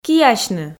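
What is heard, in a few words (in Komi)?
"киасьны", Komi, female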